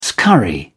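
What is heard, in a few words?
"scurry", English, British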